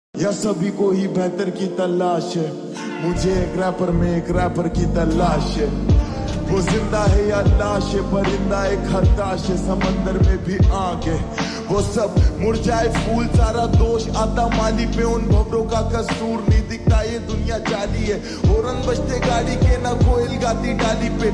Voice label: Shayari Ringtone Slowed Reverb